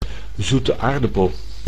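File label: "zoete aardappel", Dutch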